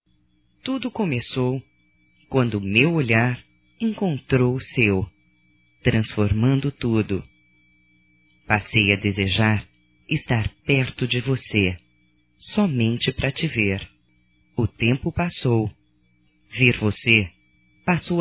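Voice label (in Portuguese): voz, Romântica Bate Coração, female, Telemensagens; Só Voz; Telemensagens 2017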